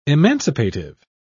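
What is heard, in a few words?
"emancipative", British English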